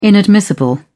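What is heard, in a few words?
"inadmissible", British English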